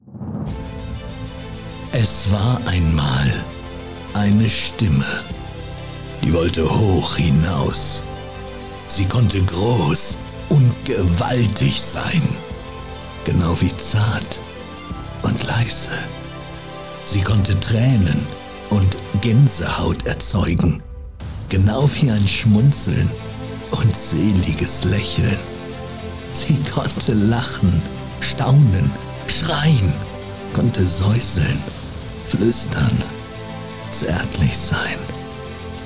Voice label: Presentation, German; The VOICE Demo; Germany

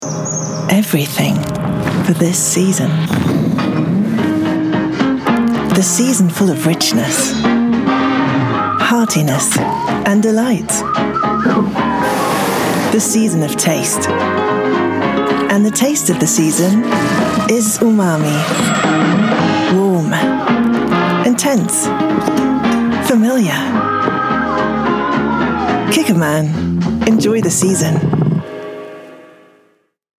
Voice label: engl; kikkoman

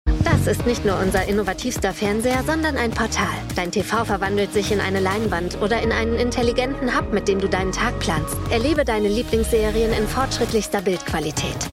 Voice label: Commercial, German; Werbung I Fire TV; voice

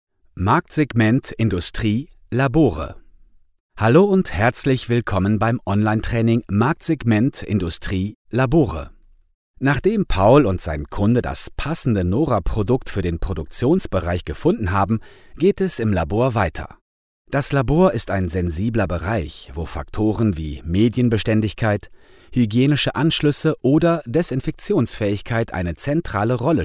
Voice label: E LEARNING Customer Care; NORA

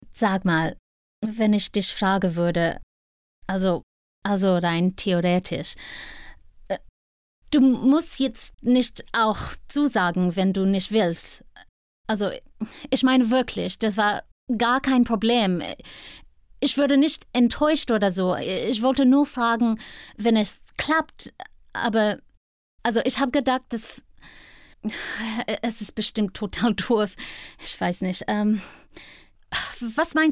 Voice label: german conversation; LOFT VOICES

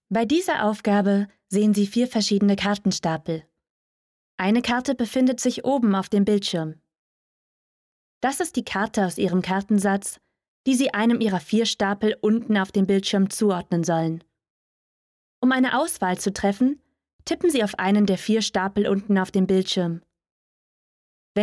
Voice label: E Learning

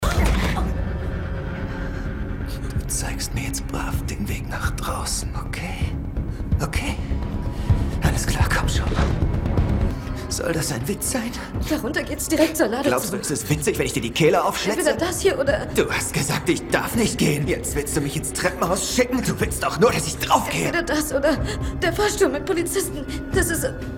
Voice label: Psychopath; Greys Anatomy

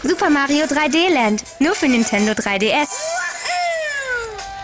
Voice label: Commercial, German; Nintendo

